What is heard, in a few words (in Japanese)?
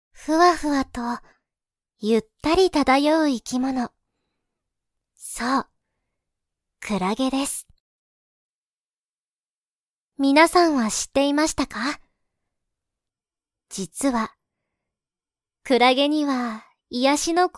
ナレーション; クラゲ